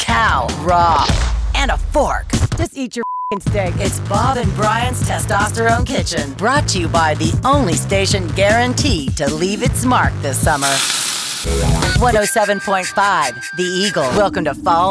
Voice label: Rock Radio Imaging